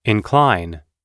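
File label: "incline", English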